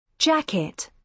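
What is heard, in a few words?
"jacket", British English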